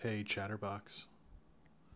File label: hey chatterbox, en-us